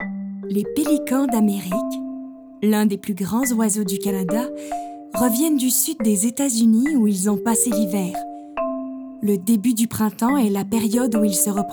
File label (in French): Demo voix; Pelican